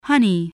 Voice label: "honey", voice spelling

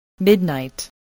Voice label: "midnight", voice, spelling

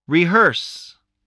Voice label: "rehearse", spelling voice

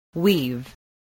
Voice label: "weave", spelling

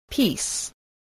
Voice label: "piece", voice, English

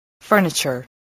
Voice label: "furniture", voice, English

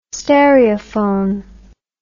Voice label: "stereophone", English

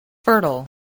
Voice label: "fertile", spelling

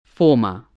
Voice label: "former", English voice, spelling

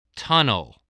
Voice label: "tunnel", spelling

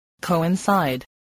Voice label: "coincide", spelling

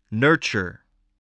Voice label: "nurture", voice, spelling

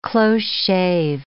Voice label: "close shave", English

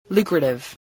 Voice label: "lucrative", spelling

